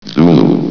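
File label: "zulu", male, vox; valve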